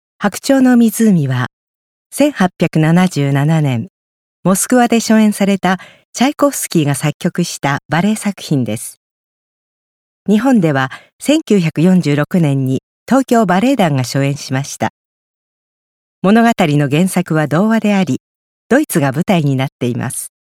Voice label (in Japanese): 作品紹介ナレ